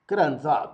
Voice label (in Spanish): "bienestar", Zapoteco Magdalena Ocotlan; INALI; Haspelmath